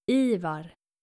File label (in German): "ivar", skandinavische jungennamen